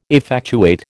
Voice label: "effectuate", American

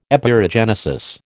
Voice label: "Epeirogenesis", American

